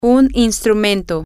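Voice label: an Instrument, Spanish, female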